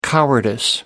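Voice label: "cowardice", British English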